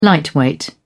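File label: "lightweight", English, British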